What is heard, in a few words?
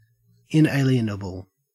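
"inalienable", Australian English; pronunciations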